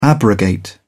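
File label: "abrogate", English, British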